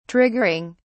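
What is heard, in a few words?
"triggering", British English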